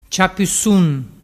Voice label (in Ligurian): "ciapusun", dialettu